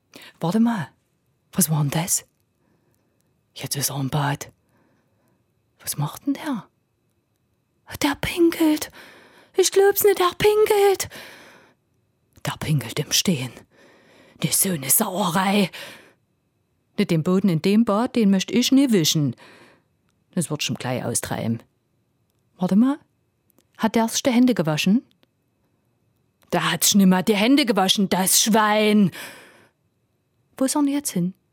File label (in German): saechsicher dialekt